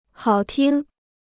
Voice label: "好听", Chinese

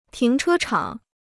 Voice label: "停车场", Chinese

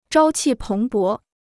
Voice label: "朝气蓬勃", Chinese

